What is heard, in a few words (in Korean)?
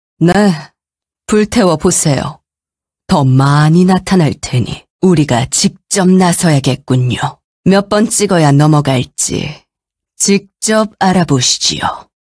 38세 허스키하고 중후한 나무 드루이드 노인 사악한; 하스스톤; 아나리 더스크그로브